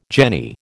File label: "jenny", American